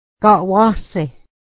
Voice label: where are you going, mohawk